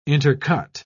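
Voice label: "intercut", English, British English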